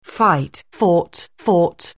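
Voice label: "fight", English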